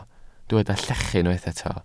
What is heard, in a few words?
"llechu", Welsh